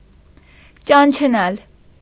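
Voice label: "ճանչնալ", Armenian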